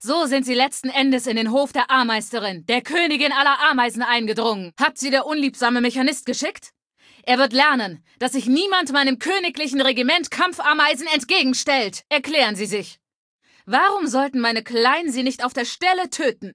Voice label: female adult greeting; Kategorie:Fallout 3: Audiodialoge

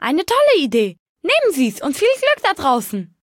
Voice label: Male unique dialogue

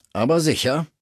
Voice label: Maleadult default dialogue

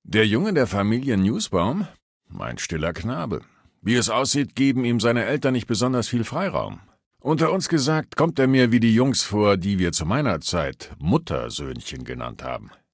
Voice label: Male adult

